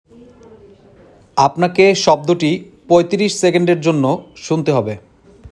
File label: male sound given